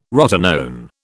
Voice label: "rotenone", British

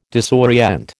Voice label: "disorient", American

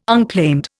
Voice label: "unclaimed", Indian

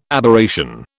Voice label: "Aberration", British

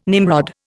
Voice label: "Nimrod", Indian

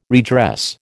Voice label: "redress", American

